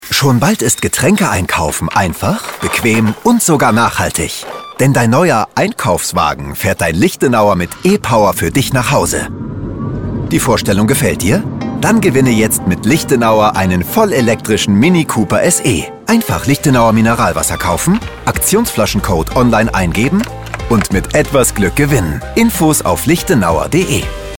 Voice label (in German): Promo